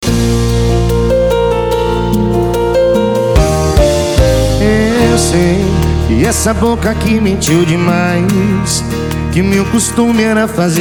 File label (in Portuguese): locutor a voz magnifica